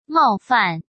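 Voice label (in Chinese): "冒犯", Mandarin Chinese; chinese-flashcards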